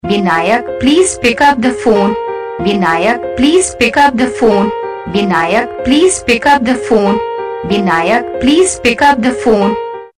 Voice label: pick up the phone saying, Ringtones

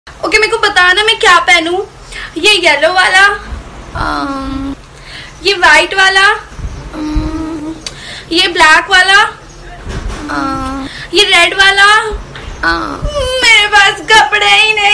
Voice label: Funny Dialogue Ringtone

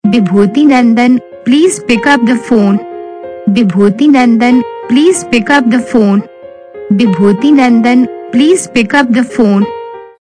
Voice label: pick up phone saying, Ringtones

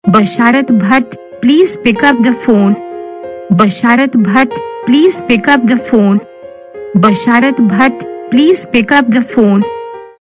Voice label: Basharat Bhat pick up phone saying

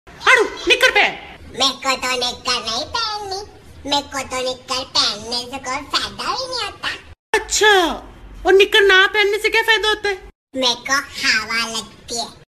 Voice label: Funny Dailog - Tik Tok Ringtone

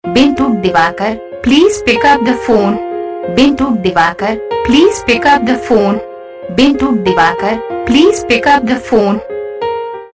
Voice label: pick up the phone saying, Ringtones

